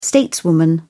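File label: "stateswoman", British English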